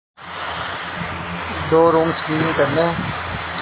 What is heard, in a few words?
Voice Note